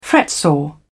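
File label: "fretsaw", British English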